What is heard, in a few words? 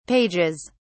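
"pages", British English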